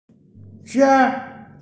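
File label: "juan1", Mandarin Chinese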